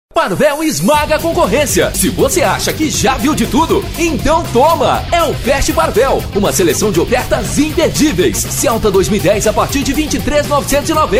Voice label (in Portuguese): locutor